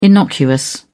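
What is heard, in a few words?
"innocuous", British English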